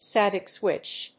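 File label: "static switch", English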